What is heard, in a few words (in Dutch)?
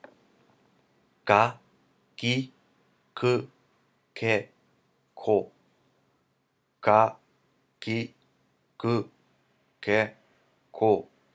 Hiragana Uitspraak KAKIKUKEKO